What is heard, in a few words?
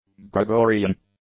"Gregorian", english; adjectives